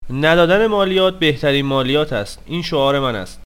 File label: peasant male